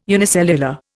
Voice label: "unicellular", Indian